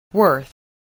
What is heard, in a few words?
"worth", voice, english